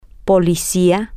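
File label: police person, Spanish, female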